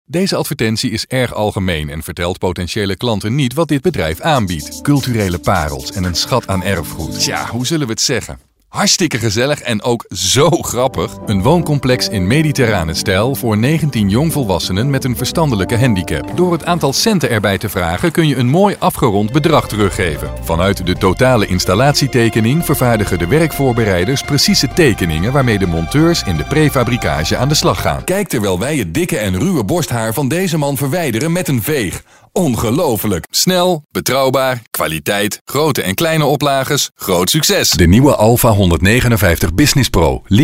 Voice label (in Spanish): comercial holandés